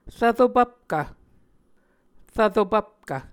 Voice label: "rvro pvpkv", Muscogee